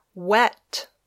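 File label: "wet", English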